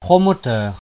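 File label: "promoteur", French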